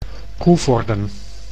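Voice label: "Coevorden", Dutch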